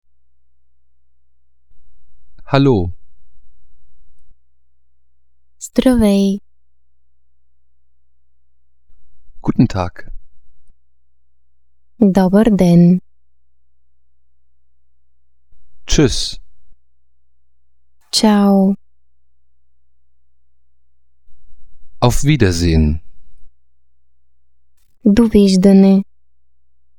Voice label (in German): bulgarisch